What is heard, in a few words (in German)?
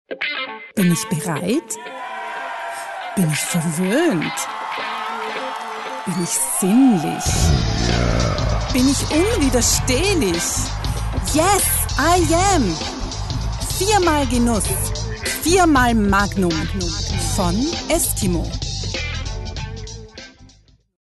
WERBUNG; MAGNUM